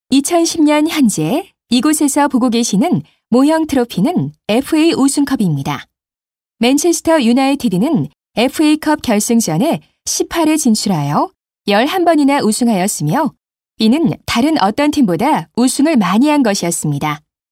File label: kr corporate